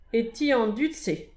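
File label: "e ti an dud-se", Breton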